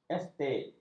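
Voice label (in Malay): "estet", Malay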